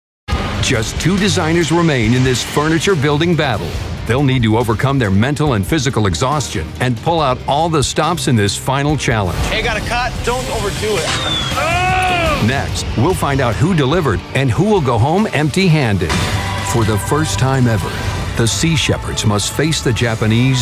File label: TV Narration